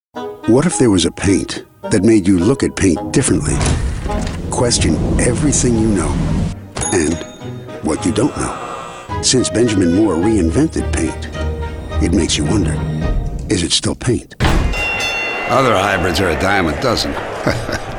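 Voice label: Voice Actor Conversational - Storyteller - Down To Earth, Commercial; Home studio in the NY tri state area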